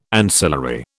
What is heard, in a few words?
"ancillary", British